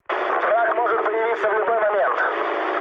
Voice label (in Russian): chat, speech ru; War Thunder